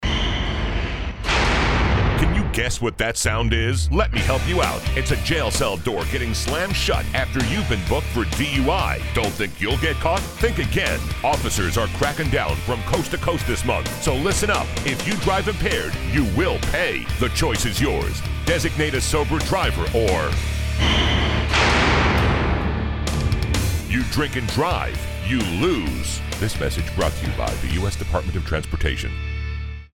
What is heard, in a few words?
English